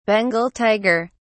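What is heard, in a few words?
"bengal tiger", English, British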